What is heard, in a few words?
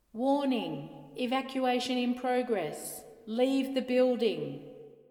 Warning Evacuation In Progress Leave The Building Australian Female Voice